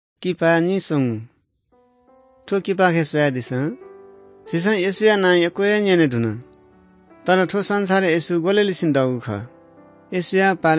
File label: good news newari bhaktapur